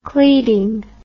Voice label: "cleading", English

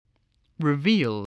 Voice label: "reveal", English

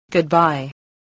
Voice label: "goodbye", spelling

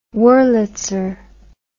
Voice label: "wurlitzer", English

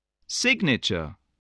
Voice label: "signature", spelling

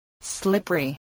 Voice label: "slippery", voice, spelling

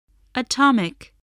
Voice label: "atomic", english

